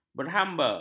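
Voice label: "berhamba", Malay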